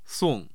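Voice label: "song4", Mandarin Chinese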